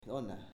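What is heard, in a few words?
"Donna", Italian, male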